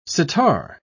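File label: "sitar", spoken word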